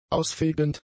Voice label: "ausfegend", German; adjectives

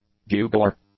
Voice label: "bugler", English; nouns

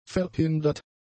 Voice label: "verhindert", German; adjectives

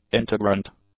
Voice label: "integrant", English; adjectives

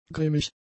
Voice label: "grimmig", German; adjectives

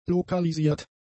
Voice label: "lokalisiert", German; adjectives

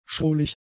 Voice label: "schrullig", German; adjectives

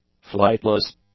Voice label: "flightless", english; adjectives

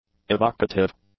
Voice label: "evocative", English; adjectives

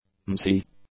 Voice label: "mousy", English; adjectives

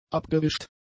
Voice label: "abgewischt", German; adjectives

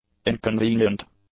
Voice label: "inconvenient", English; adjectives

